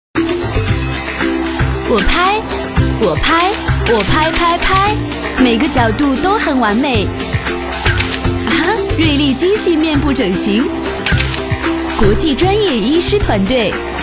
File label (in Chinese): 女, 广告, 品牌形象, 整形医院